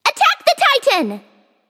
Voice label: vox attack m; smite; scylla

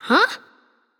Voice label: Confused; smite; merlin

